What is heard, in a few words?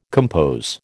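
"compose", American English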